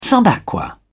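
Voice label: "sub aqua", British English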